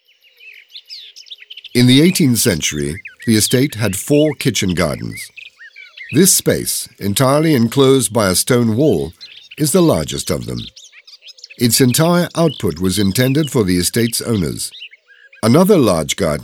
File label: audioguide en adulte; jardin; modave